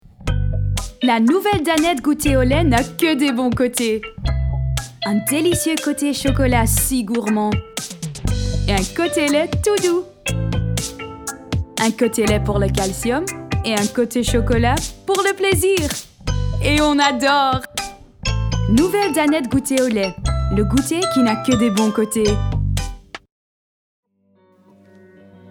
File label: French commercial corporate VO